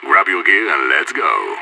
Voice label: radio lock n load

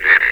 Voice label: "eighty", metropolice vo; Half Life Alyx

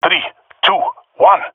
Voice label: countdown, male epic; player vo; Counter Strike Model Pack; CS:GO

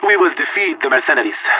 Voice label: radio lock n load, vo agents; leet

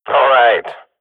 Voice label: radio bot cheer, swat; Counter Strike Model Pack; CS:GO

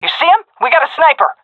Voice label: sees sniper, seal fem; vo; Counter Strike Model Pack; CS:GO

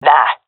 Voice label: negative, seal fem; vo; Counter Strike Model Pack; CS:GO

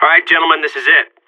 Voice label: radio lock n load; Source Model Packs; Counter Strike Model Pack; CS2; seal

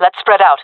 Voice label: request spreadout, jungle fem; vo; Counter Strike Model Pack; CS:GO

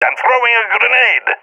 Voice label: throwing grenade, balkan; vo; balkan epic

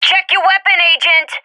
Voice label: taking fire friendly, vo agents; fbihrt epic

